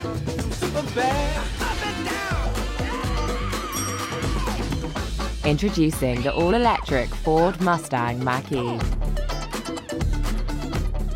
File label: Commercial; FORD